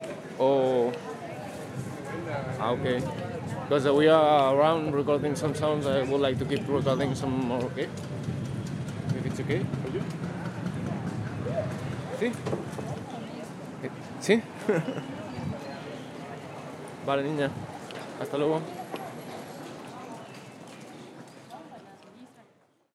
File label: Speaking On Phone Spanglish